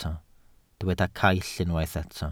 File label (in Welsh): "caill", Welsh